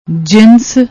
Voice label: "jinas", Punjabi